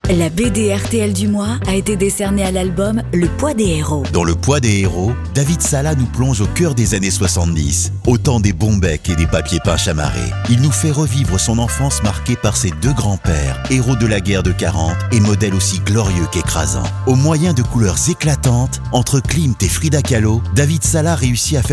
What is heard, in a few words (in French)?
spot BD janvier